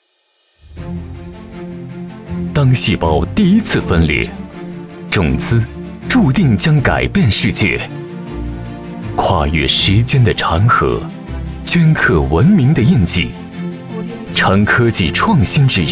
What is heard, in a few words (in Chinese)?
男 大气科技 农业 品牌概念片; 中种品牌概念片